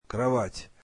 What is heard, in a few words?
russian bed